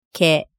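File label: "ke", Japanese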